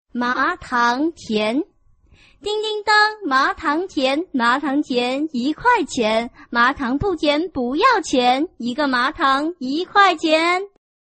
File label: chinese tongue twister